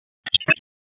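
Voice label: Raleigh Police Special Operations Tactical, p25; wake p25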